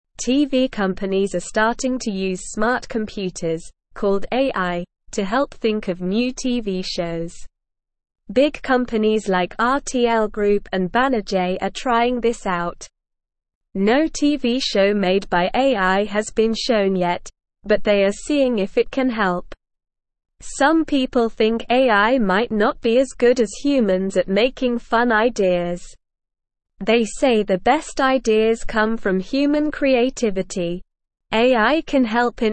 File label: English Newsroom Beginner SLOW Reading; TV companies try using smart computers to make shows